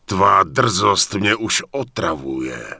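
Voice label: Human Male Warrior NPC Pissed, Czech; wowpreklad; czwow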